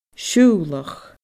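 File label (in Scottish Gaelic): "siubhlach", Scottish Gaelic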